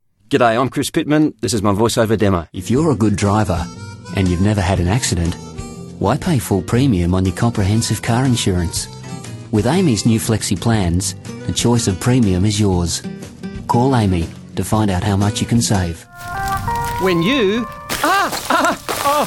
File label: Radio TV VO